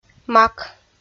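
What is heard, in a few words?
"мак", Komi, female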